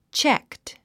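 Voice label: "checked", English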